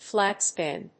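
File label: "flat spin", English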